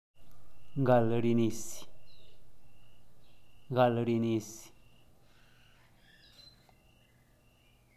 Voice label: gal rinisih crecer en altura, zapoteco teotitlan